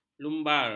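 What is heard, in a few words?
"lumbar", Malay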